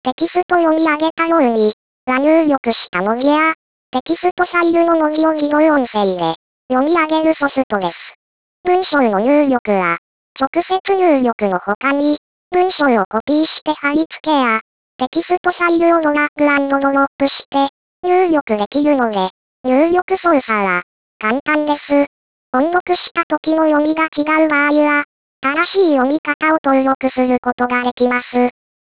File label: boy